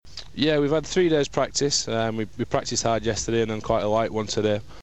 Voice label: mystery voice